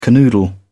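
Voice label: "canoodle", British English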